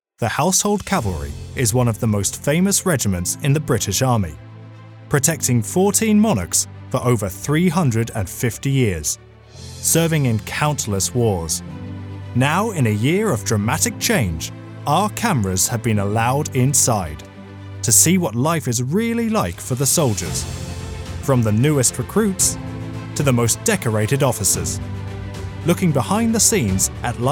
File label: Documentary